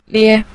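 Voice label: "lie" Mandarin Chinese, neutral tone; 咧; modal particle expressing exclamation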